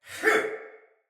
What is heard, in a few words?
Attack Soldier Male; Sinecure Soldier Male A